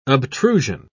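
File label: "obtrusion", British English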